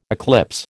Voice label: "eclipse", American